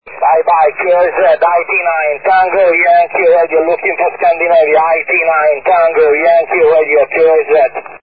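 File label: 6m single sideband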